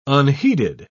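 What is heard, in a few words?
"unheeded", British English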